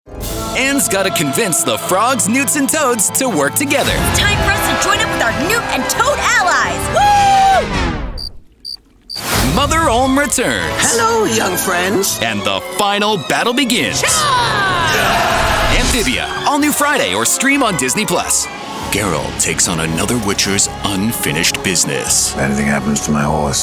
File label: promo